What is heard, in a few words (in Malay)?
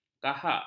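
"kahak", Malay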